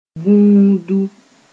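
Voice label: "vũundu", Mossi; smoke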